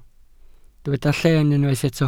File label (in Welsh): "llen", Welsh